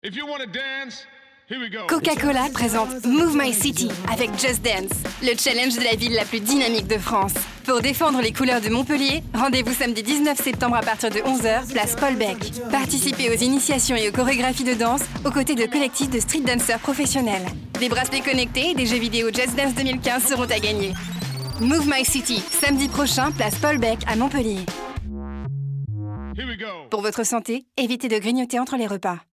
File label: RADIO; Coca-Cola